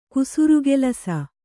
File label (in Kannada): "ಕುಸುರುಕೆಲಸ", Kannada